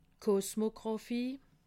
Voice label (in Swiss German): "kosmographie", alsace